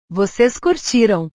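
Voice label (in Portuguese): "vocês curtiram", Portuguese; portugues/verbos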